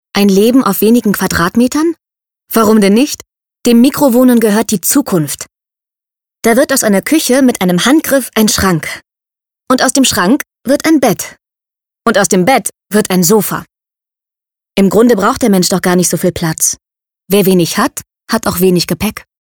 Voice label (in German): Influencer Mikrowohnen VO